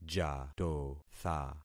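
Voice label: "jatotha", concatenated syllables; Affixing experiment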